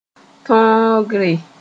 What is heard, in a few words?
"tõogre", Mooré